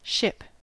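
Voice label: "ship", US English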